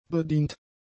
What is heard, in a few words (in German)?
"bedient", German; adjectives